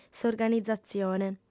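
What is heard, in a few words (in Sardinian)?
"s'organizatzione", female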